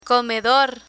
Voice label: "comedor", Spanish; learn spanish